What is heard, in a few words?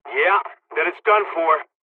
dialog, dialogs serbian; War Thunder; midway